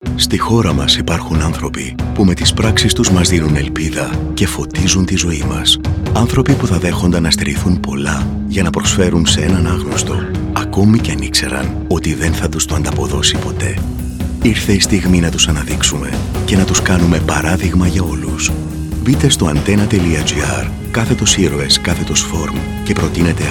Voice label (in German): Griechenland M5, voiceactors